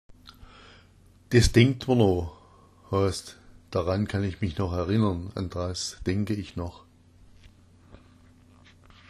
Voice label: denkt, schwaebisch

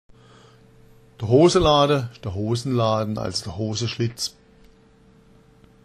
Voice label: "hosalada", schwaebisch

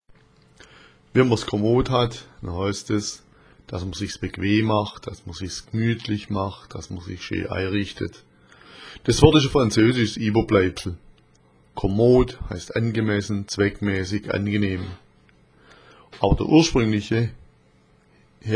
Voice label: "kommod", schwaebisch

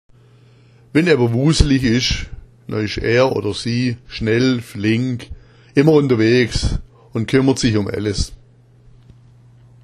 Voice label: wuselich, schwaebisch

